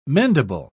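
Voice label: "mendable", British English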